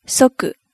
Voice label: "soku", hiragana

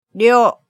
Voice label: "ryo", hiragana

